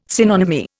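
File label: "synonymy", Indian English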